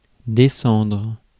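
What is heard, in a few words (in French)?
"descendre", French; phonemes; Mots francais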